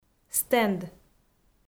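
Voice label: "стенд", Komi, female; kpv; audio dict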